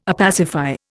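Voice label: "opacify", Indian English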